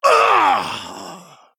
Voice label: Male Human Death